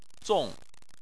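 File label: "zong" fourth tone, chinese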